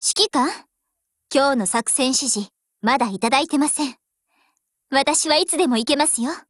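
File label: HELLO Japanese, Voice; GF Alarm; AR15Mod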